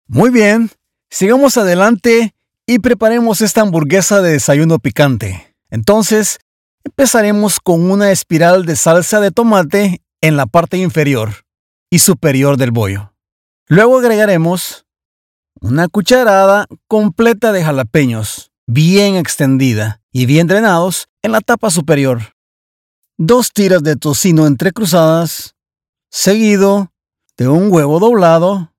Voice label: spanish latin american explainer videos; Estados Unidos